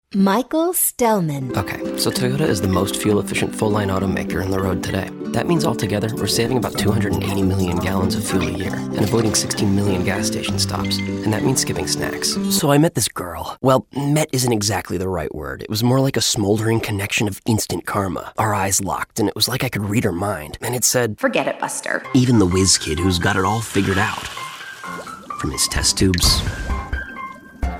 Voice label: Commercial